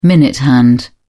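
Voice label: "minute hand", British English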